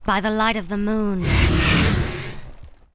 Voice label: Hippogryph with rider warcry, en

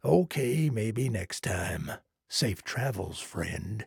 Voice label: trader male like quest declined

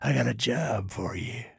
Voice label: trader male neutral quest offer

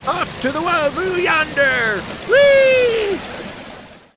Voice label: Gyrocopter warcry

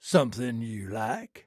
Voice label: trader male like greeting

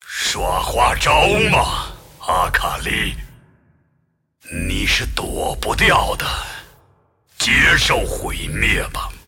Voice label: move, zh

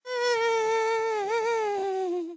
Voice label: Emote Happy

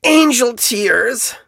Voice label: hurt vo

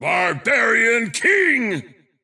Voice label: Barbarian King intense

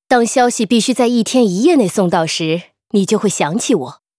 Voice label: runner pissed, zh

